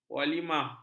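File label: "walimah", Malay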